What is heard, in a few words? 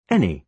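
"any", British English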